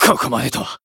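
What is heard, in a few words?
damaged, Japanese; shigure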